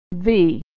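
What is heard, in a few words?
"v", American English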